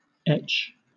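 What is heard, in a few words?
"etch", British English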